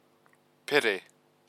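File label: "pere", Māori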